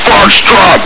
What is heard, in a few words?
"foxtrot!", Combine soldier; hl2